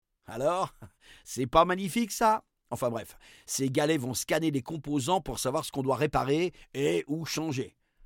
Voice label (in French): narrateur; La-Fabrik; galet scan